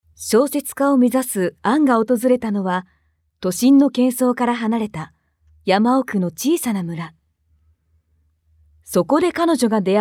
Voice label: nare movie; ナレーション